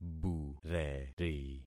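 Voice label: "bureri", concatenated syllables; Affixing experiment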